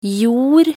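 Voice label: "jord", Norwegian Bokmål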